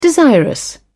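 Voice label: "desirous", British English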